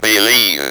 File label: "BELIEVE", Speak & Spell